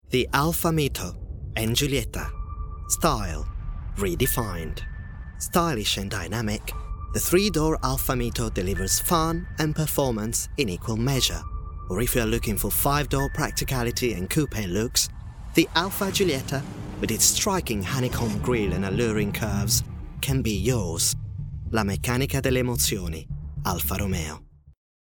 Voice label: English reel, italian